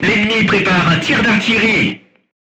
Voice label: voice message art shooting warning, crew tanks french